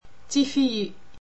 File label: "teefeeyee", Berber